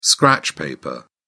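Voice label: "scratch paper", British English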